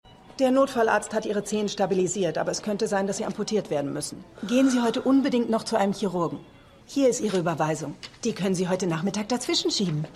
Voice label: Krankenschwester, Stimmproben; Shameless

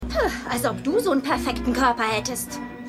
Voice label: Essende Sekretaerin; Was Frauen wollen

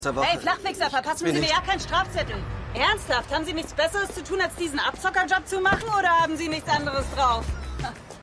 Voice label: Autofahrerin, Stimmproben; Shameless

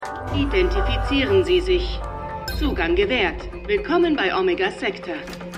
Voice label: Aufzugstimme; True Lies

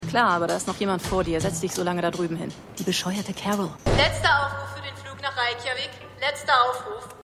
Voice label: Gate Agent; The Flight Attendant

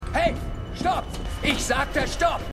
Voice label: Wachmann, Stimmproben

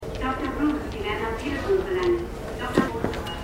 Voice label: Krankenhausdurchsage; Schmigadoon

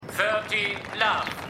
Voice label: Tennisdurchsage, Stimmproben; Seinfeld

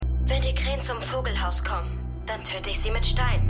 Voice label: Junge

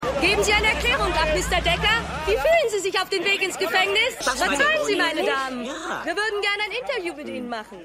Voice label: Reporterin; Gone Fishin

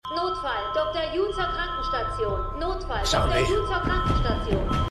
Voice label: Durchsage; Manifest

